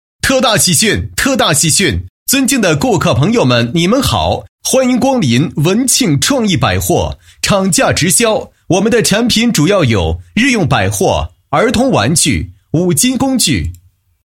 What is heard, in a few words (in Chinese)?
男, 广告; 文庆创意百货